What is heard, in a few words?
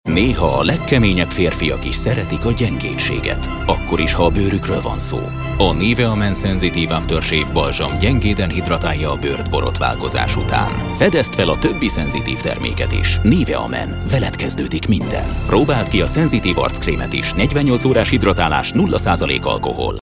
hungarian others